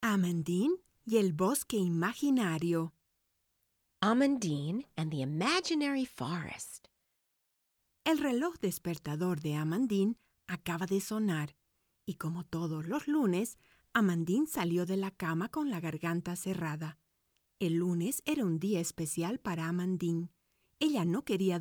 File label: Bed Time Stories Spanish